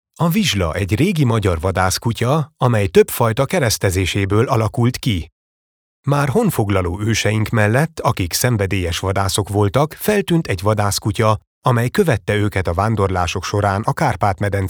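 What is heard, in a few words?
Hungarian, Neutral; Magyar Vizsla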